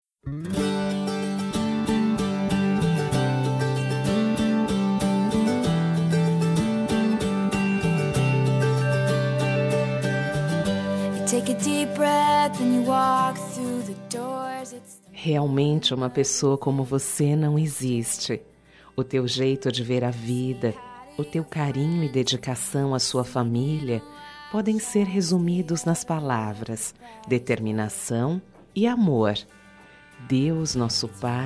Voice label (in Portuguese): DIA DOS PAIS GERAL, fem; Telemensagens 2017